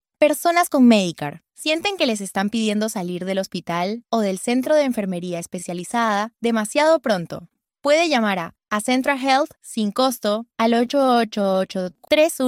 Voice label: psa spanish; region 1